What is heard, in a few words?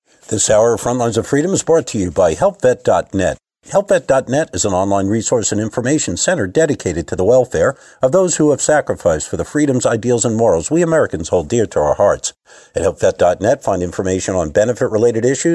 COMMERCIAL; Veterans Crisis Line